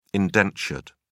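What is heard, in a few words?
"indentured", British English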